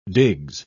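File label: "digs", en, British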